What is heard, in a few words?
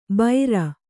"ಬೈರ", Kannada